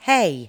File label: "hay", english